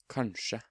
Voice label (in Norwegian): "Kanskje", Norwegian